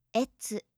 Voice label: "etsu", japanische maedchennamen

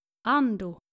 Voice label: "ando", nordische jungennamen, Aussprache

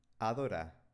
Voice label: "adora", spanische maedchennamen

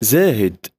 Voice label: "Zahit", arabische Jungennamen

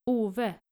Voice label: "owe", nordische jungennamen

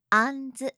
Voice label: "anzu", japanische maedchennamen

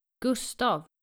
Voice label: "gustav", nordische jungennamen

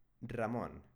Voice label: "ramon", spanische jungennamen

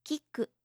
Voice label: "kiku", japanische maedchennamen

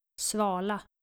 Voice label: "svala", skandinavische maedchennamen

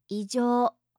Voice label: "ijo", japanische maedchennamen

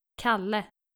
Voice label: "kalle", nordische jungennamen

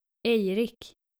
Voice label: "aerik", skandinavische jungennamen

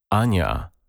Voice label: "ania", polnische maedchennamen

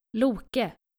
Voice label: "loke", skandinavische jungennamen

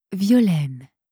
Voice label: "violaine", franzoesische maedchennamen

